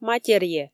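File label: "матеръе", Komi, female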